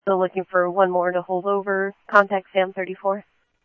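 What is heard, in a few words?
Simulcast police department, scanner audio; Mesa Simulcast; MESA PD Superstn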